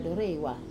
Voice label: "dorewa", shorewards, Wamesa

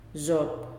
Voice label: "Hit", Nalik

